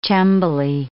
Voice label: "cembali", English

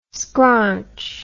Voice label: "scraunch", English

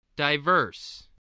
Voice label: "diverse", spelling

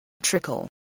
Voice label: "trickle", voice

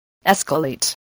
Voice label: "escalate", voice, english

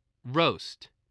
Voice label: "roast", English spelling

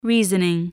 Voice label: "reasoning", english

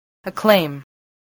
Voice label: "acclaim", English voice

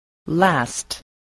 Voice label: "last", English

